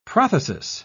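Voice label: "prothesis", British English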